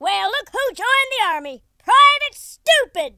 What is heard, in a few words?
stupid, Drill Sergeant; speech